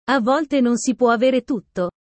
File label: italian sayings about life